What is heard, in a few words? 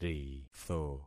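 "ritho", concatenated syllables; Affixing experiment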